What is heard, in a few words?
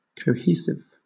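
"cohesive", British English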